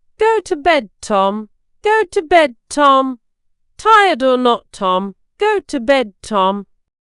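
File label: female British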